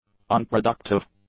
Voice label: "unproductive", english; adjectives

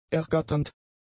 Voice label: "ergatternd", German; adjectives

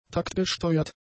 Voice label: "taktgesteuert", German; adjectives

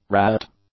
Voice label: "rat", English; nouns

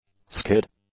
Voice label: "skid", english; nouns

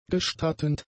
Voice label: "gestattend", German; adjectives

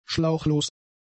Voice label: "schlauchlos", German; adjectives

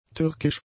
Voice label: "türkisch", German; adjectives

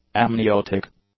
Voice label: "amniotic", English; adjectives

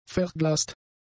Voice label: "verglast", German; adjectives

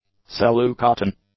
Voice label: "cellucotton", English; nouns

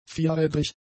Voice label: "vierrädrig", German; adjectives

